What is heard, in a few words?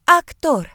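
"actor", British English; gcompris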